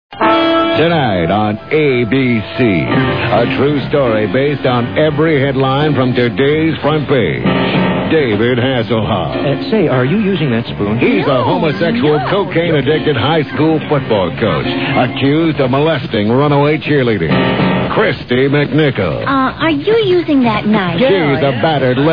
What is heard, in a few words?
Commercials; Lust 4 Ratings; Freekin Weird Audio